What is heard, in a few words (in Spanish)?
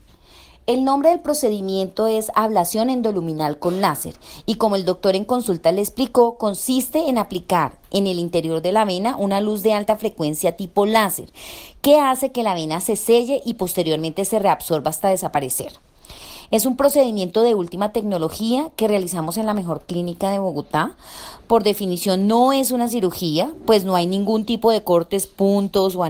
audio informativo laser